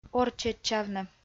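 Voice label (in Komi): "орччӧдчавны", Komi, female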